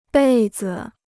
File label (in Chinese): "被子", Chinese